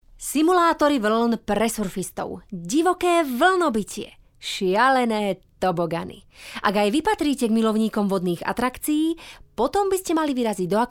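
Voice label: Slovak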